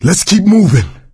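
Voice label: emphatic go quiet, voice, male; Left 4 Dead 2; coach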